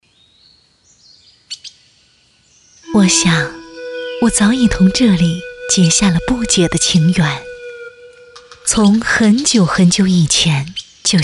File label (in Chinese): 宣传片 旅游 风景宣传 稳重, 女; 五桂山